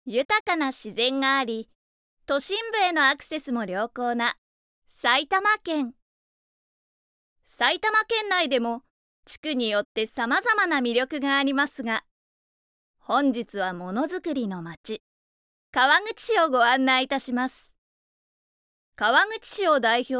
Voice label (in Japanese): ナレーション